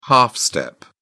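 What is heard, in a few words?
"half step", British English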